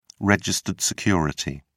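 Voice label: "registered security", British English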